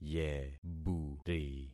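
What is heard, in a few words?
"yeburi", concatenated syllables; Affixing experiment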